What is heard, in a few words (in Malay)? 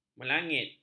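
"melangit", Malay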